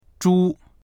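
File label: "zhu1", Mandarin Chinese; 猪; 豚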